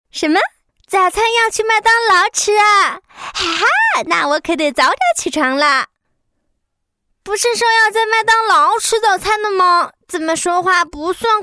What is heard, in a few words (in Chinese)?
女 童声 小女孩